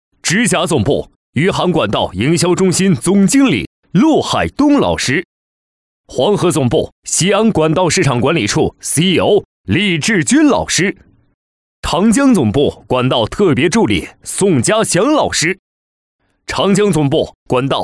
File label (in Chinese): 男78 颁奖类; 实惠年轻